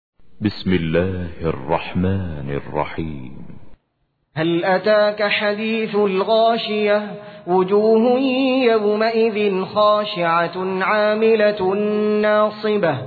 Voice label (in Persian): ترتیل; سوره غاشیه